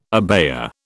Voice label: "abaya", British

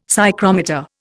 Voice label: "psychrometer", Indian; Dictionary

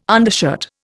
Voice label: "undershirt", Indian

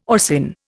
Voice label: "orcin", Indian

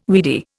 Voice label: "weedy", Indian

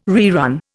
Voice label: "rerun", Indian

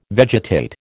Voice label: "vegetate", American

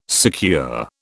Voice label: "secure", British

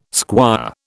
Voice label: "squire", British

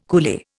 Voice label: "coolie", Indian

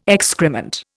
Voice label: "excrement", Indian

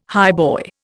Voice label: "highboy", Indian

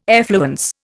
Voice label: "effluence", Indian

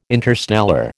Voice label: "interstellar", American

